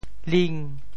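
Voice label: "ling5", Teochew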